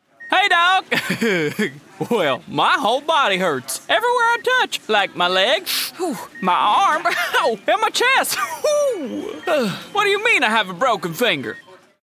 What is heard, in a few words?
Hillbilly Southern, voice-over